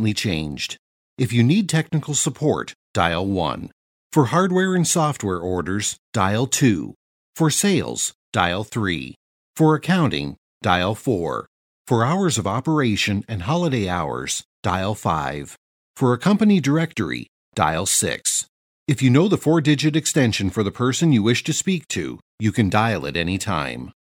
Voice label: Voice Talent On Hold Message

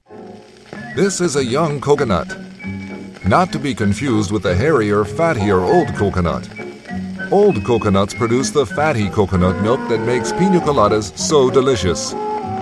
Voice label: 1950s Educational

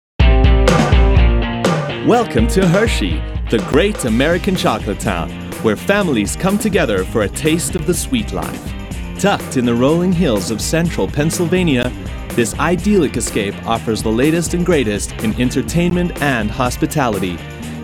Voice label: AD; Hershey

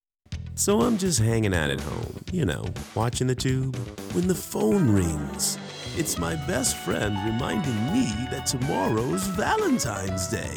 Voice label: Commercial VO

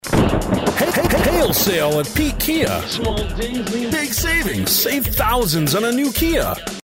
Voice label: Hail Sale Commercial

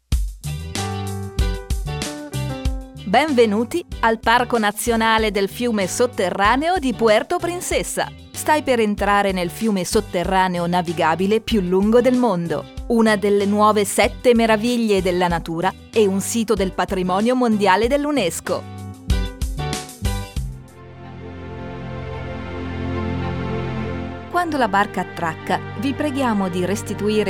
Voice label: Audiotour Announcements IT MUSIC

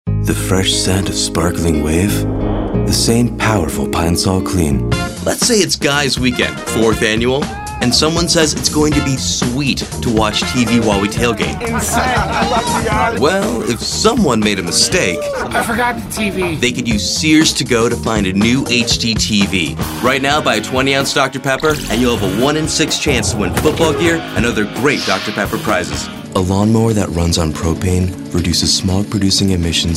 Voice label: Commercial VO

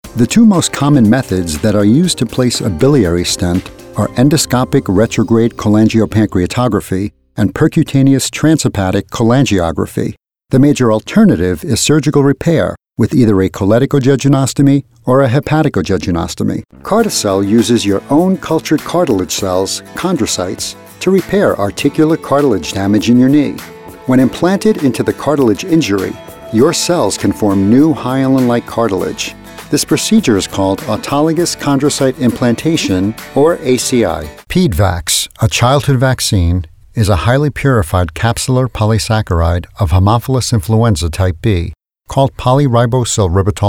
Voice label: Medical Narration

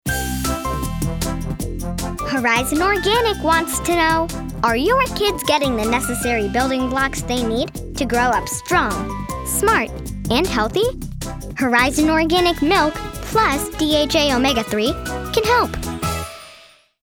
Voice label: Sample Commercial Organic Milk; Horizon Organic Milk

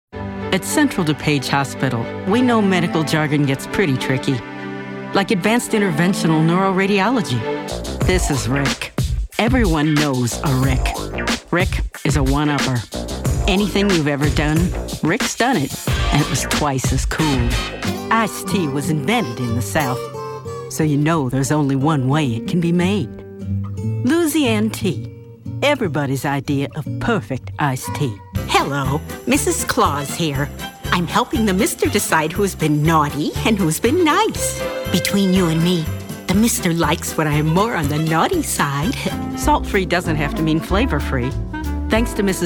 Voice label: Commercials

